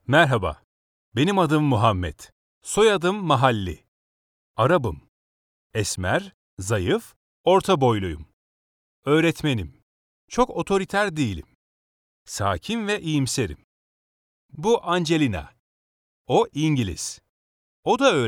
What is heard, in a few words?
turkish audiobooks